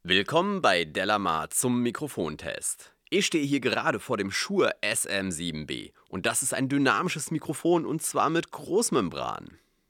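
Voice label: speech male shure sm7b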